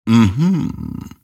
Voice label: happy; Grimstroke

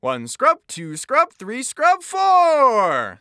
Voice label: Announcer 4 Kills; Spree Classic

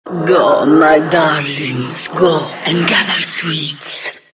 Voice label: announcer; Diretide 2012

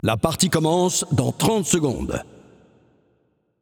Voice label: Announcer French Match Begin

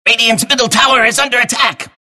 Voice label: announcer; Dota 2; dlc clockwerk announcer; twr attack rad mid

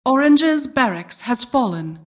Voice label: announcer barracks fall

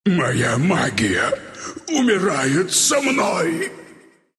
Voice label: death, ru; dota2

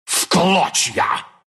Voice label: attack, ru; dota2

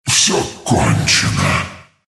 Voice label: dragon death, ru

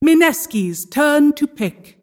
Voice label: announcer team pick

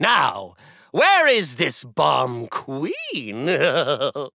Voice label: Taunt Directed; MalDamba; bombking

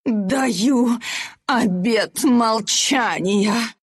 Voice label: lose, ru; dota2

